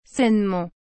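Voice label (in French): "sainement", French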